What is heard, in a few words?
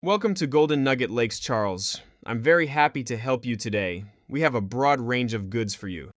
male voice; PolyAI pheme